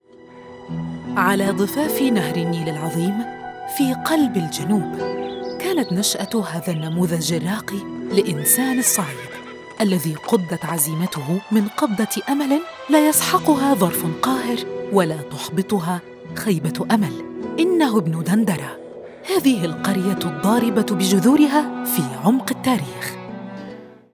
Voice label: Documentary